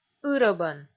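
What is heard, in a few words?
"ырӧбӧн", Komi, female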